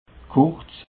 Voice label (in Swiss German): "kurz", alsace